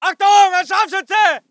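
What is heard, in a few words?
voice german infantry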